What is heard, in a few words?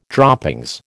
"droppings", American